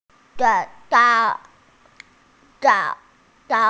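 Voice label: "ju3shou3", Mandarin Chinese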